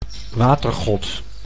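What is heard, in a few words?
"watergod", Dutch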